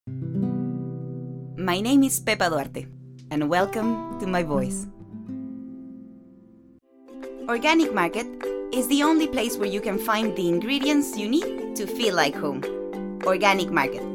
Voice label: English

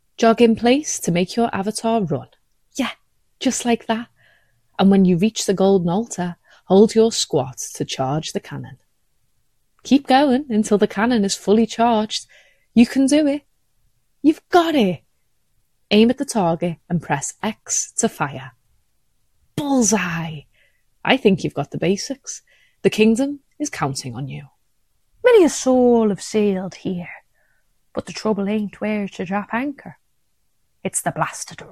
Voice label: Computer Games, liverpool

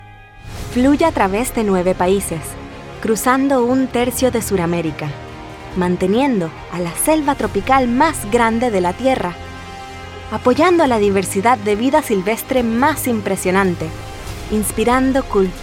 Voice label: Spanish Latin

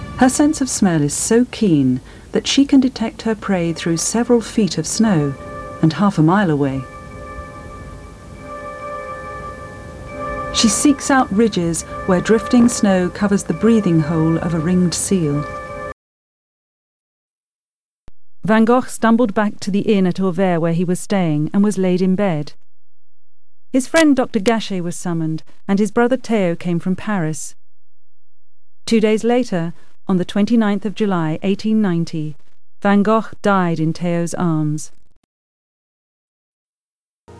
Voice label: corporate copy

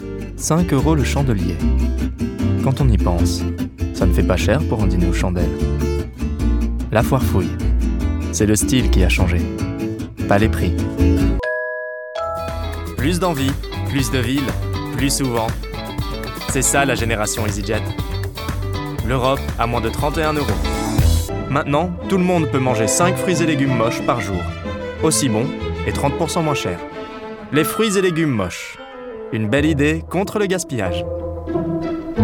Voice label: French

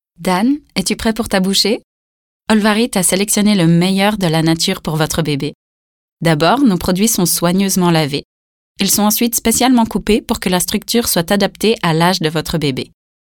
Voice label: Swiss French

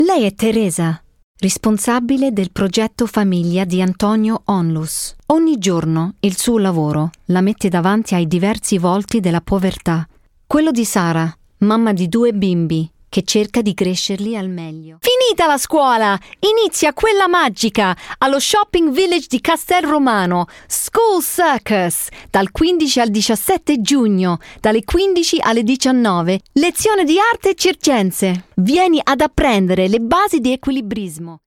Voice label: italian

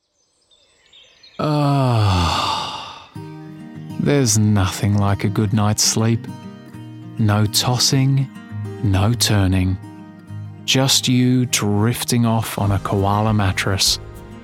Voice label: Commercials, 20s-30s. Male. Australian

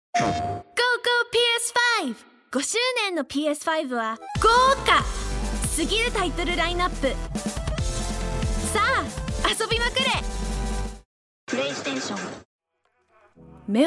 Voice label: Japanese

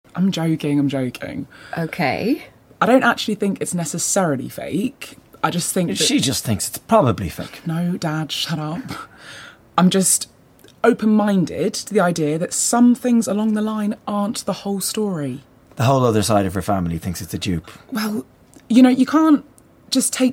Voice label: Audio drama

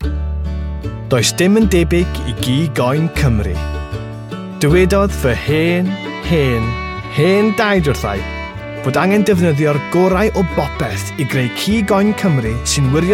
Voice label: Welsh; Welsh, Male, 20s-30s

